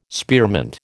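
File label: "spearmint", American English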